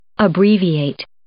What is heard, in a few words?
"abbreviate", English